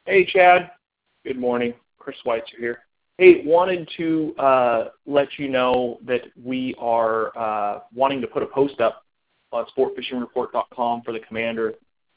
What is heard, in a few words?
voice message